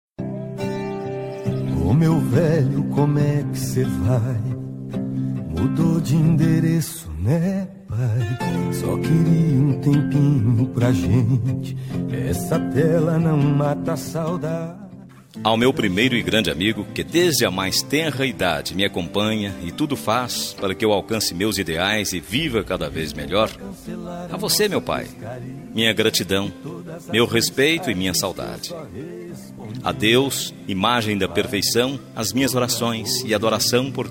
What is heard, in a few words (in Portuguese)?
masc distante, voz masculina; Dia dos Pais